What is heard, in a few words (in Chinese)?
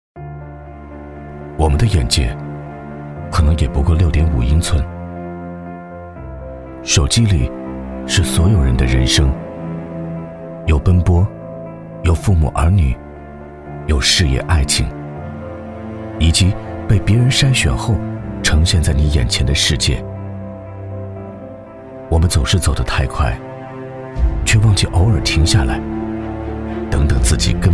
男, 品牌走心广告, 自然讲述; 磁性质感 大气浑厚